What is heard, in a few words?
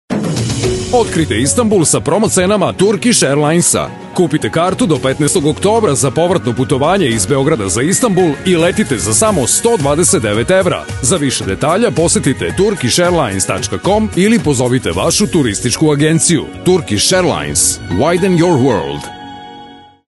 TVC, serbian